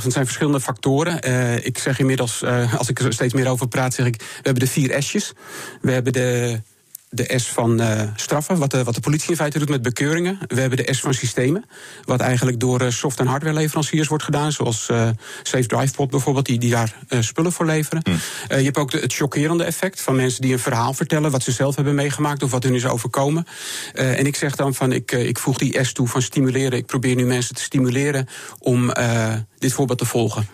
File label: interview; bij bnr